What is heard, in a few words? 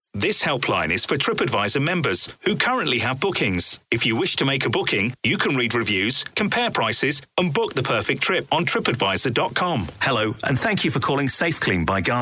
phone